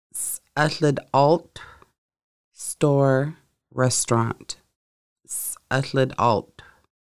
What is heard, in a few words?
store restaurant, Lushootseed